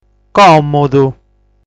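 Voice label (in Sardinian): "Còmudu", Sardinian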